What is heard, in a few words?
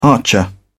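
"archer", English, British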